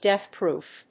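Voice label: "Death Proof", English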